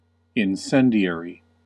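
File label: "incendiary", US English; pronunciations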